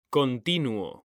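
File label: "continuo", Spanish, male; mekanta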